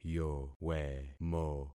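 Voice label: "yowemo", concatenated syllables; Affixing experiment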